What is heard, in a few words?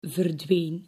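"verdween", Dutch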